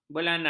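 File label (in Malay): "belanar", Malay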